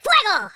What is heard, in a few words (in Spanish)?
"fire", Spanish; speech